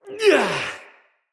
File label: attack, soldier male, en; Battle; Barks; Dialog; Dendrit